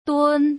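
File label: "dun", Putonghua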